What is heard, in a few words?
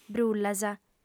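"brullas", female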